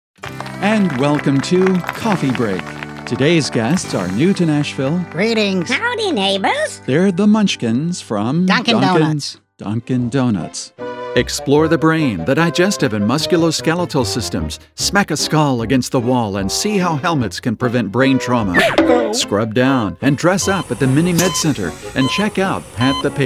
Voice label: male; Spotland Productions